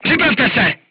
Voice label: voice message load, crew tanks czech

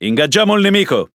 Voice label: Speech Pilots Torpedo Engage, IT; World Of Warships

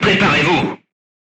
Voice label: voice message reloading, crew tanks french

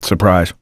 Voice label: taunt surprice

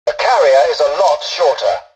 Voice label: tutorial dialogue, english; War Thunder

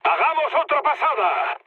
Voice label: bomb failure mood med; War Thunder

